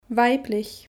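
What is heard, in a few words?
"weiblich", German; Kapitel 2; Alphabet erste Schritte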